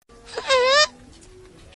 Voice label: Ehee comedy sound effect

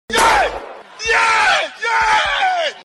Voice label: yee yee yeeee sound effect

